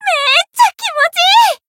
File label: healed, jp; millecha